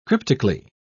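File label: "cryptically", British English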